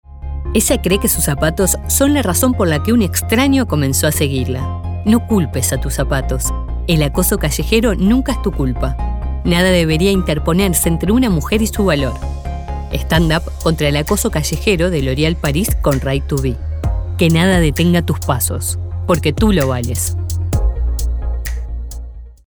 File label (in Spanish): spot comercial campana concientizacion